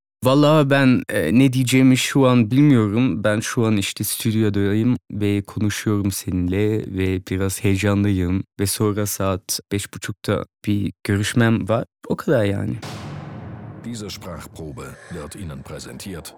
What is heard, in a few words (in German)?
karatuerkisch neut